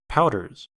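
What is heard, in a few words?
"powders", US English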